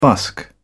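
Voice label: "busk", British English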